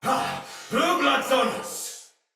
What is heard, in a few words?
See Player Shout, Battle; Barks; Thawtide Hunter